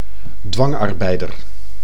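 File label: "dwangarbeider", Dutch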